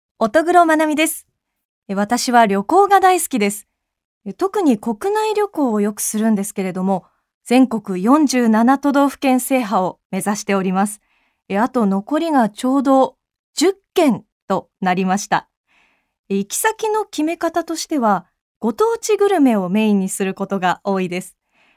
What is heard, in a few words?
Free Talk